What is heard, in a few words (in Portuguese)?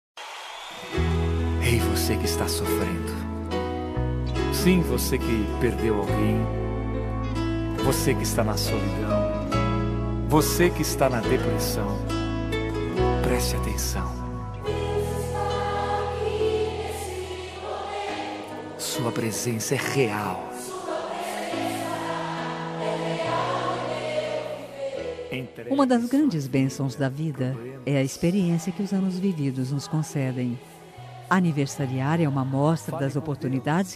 religioso fem, Aniversário Religioso – Voz Feminina